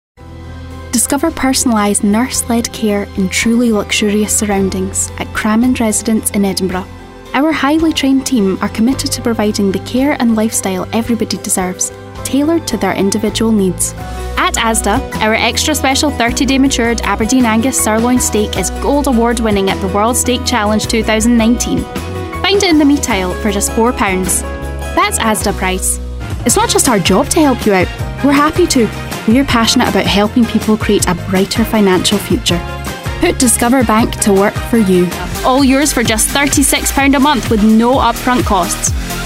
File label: Commercial Showreel